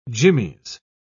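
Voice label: "jimmies", British English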